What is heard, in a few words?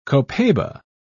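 "copaiba", British English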